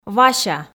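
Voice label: "вася", Komi, female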